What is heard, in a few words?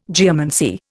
"geomancy", Indian